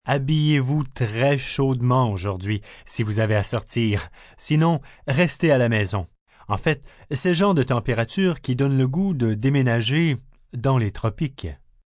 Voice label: Cold Weather, Broadcast, weather, male weather report in French, extreme cold health advisory; with humour; news